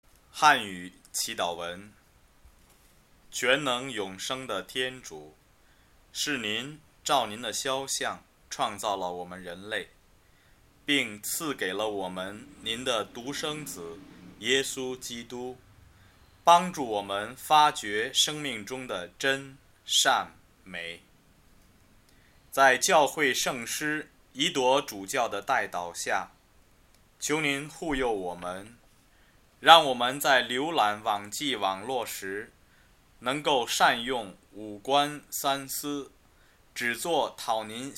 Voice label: prayer mandarin